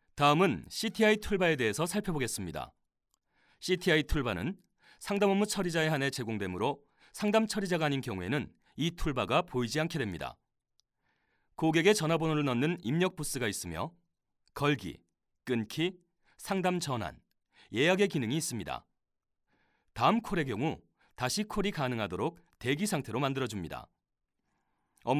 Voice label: Korece Erkek; korece seslendirme; erkek sesler

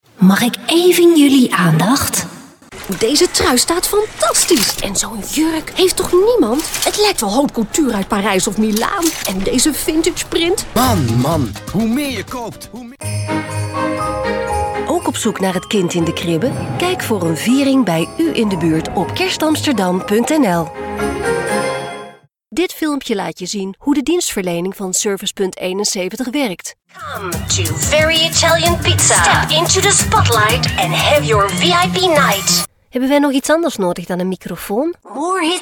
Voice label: Hollanda Kadin Felemenkce; seslendirme

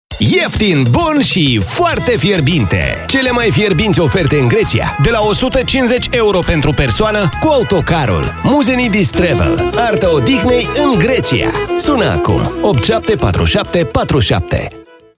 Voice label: Moldovyaca Erkek; moldovyaca seslendirme; erkek sesler

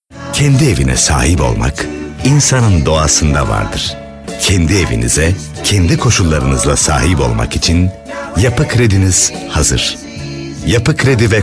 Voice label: turkce seslendirme, erkek; erkek sesler

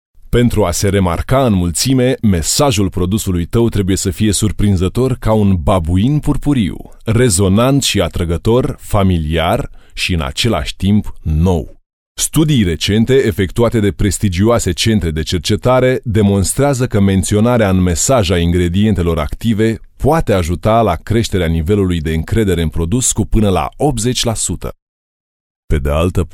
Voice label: Romence Erkek; romence seslendirme; erkek sesler

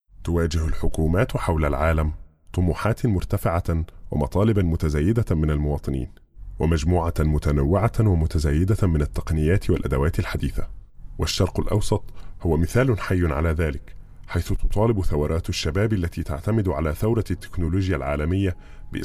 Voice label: Arapca Erkek Klasik Arapca Misirli; erkek sesler; seslendirme

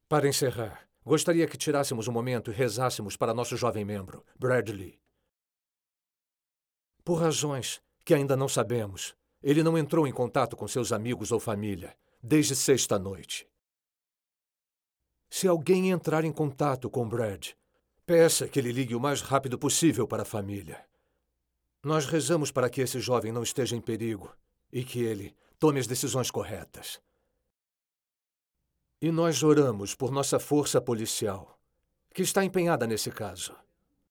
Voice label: Portekizce Erkek brezilya; portekizce seslendirme; erkek sesler

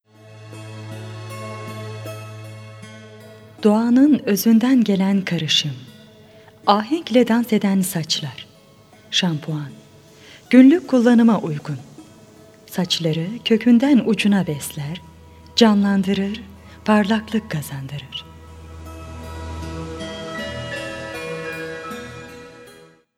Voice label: turkce seslendirme, kadin sesler

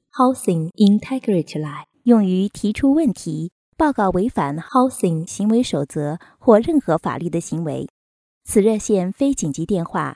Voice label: Cince Kadin Mandarin Cin Simplified, seslendirme

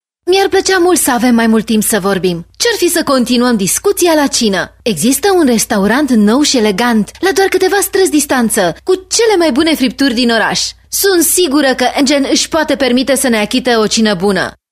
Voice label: Romence Kadin, romence seslendirme; kadin sesler

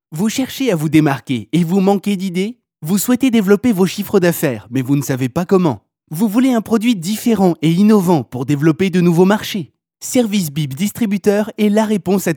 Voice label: Fransizca Erkek; seslendirme

